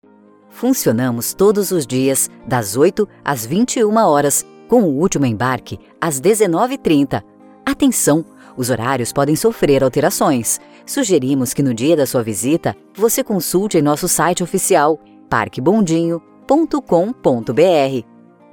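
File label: interactive voice response, Brazilian Portuguese; CableCar; Parque Bondinho Pao De Acucar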